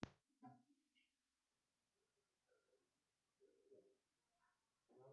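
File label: "telephone", spoken word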